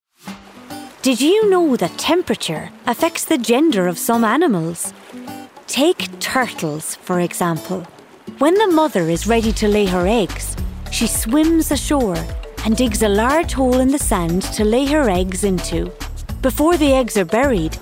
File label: english irish e-learning